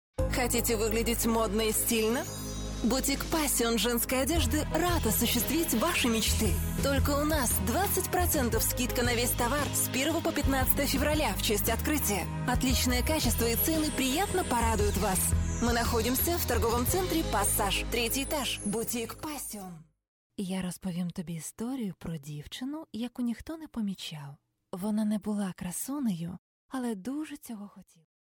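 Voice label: Ukrainian, female; Professionelle Sprecher und Sprecherinnen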